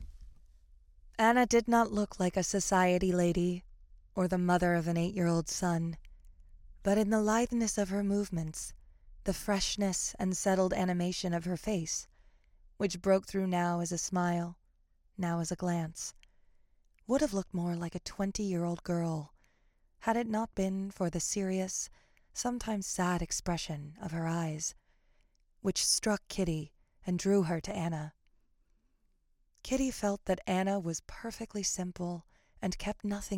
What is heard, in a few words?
Voiceover Audio Books; new york